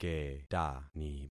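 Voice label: "ketani", concatenated syllables; Affixing experiment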